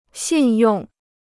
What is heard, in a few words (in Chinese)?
"信用", Chinese